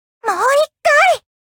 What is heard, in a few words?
defeat, ja